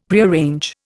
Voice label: "Prearrange", Indian; Dictionary